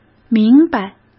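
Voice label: "明白" Mandarin Chinese; chinese flashcards; hsk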